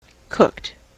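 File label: "cooked", US English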